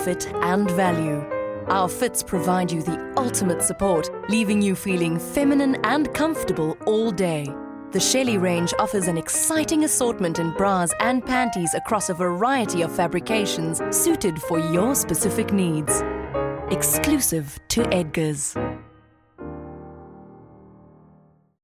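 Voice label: English South Africa, female, commercial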